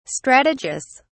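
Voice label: "strategus", British English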